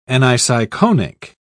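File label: "aniseikonic", British English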